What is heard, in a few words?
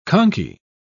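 "conky", British English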